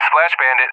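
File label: "splash bandit", English, USA; DCS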